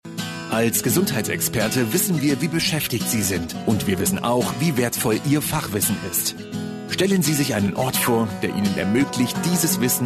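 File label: German, male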